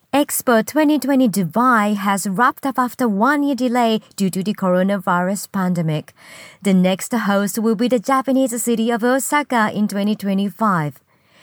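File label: voice sample english news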